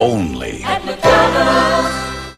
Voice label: announcer plus SUNG